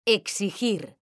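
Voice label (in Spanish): "exigir", Spanish; mekanta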